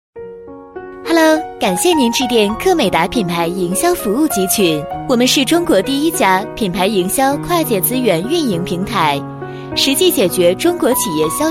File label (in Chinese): 女 彩铃; 客美达; 国语女声配音